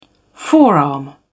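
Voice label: "forearm", en, uk British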